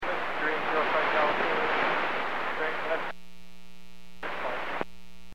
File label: Aviation 122.7; KSTE